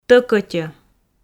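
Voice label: "тӧкӧтьӧ", Komi, female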